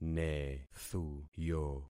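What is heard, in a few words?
"nethuyo", concatenated syllables; Affixing experiment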